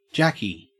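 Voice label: "jacky", Australian English; pronunciations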